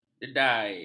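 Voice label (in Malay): "dedai", Malay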